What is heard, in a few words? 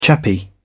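"chappie", British English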